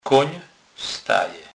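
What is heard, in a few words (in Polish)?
"staje", Kurpie dialect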